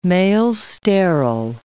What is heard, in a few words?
"male-sterile", English